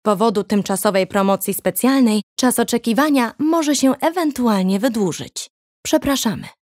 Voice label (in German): PL Telefonansage Neutral; Fremdtake